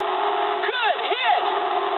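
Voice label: English bomb success mood med; War Thunder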